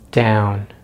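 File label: "down", American English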